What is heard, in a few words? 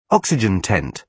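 "oxygen tent", British English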